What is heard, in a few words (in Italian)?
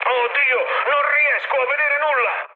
searchlite, mood high, speech it; War Thunder